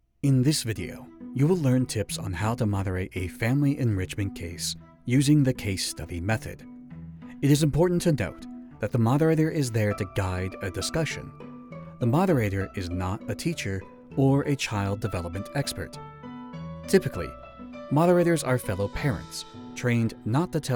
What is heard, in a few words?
elearning sample